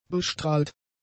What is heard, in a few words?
"bestrahlt", German; adjectives